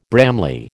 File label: "Bramley", American